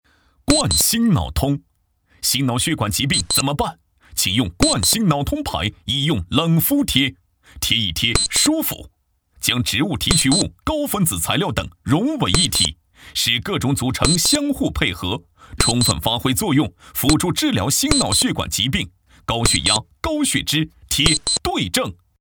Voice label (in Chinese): 男 电视广告 医药